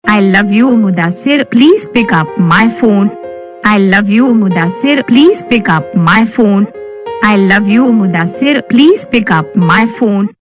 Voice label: I love u please pickup my phone saying, Ringtones; Your Name Ringtones A To Z Names